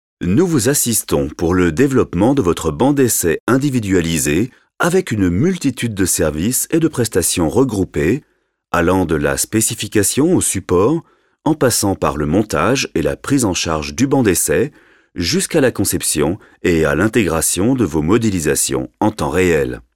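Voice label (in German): French, Kommentar Neutral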